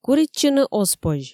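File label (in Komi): куритчыны оз позь, Komi, female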